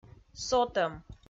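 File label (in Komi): "сотӧм", Komi, female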